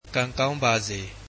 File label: Good luck, burmese